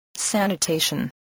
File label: "sanitation", English spelling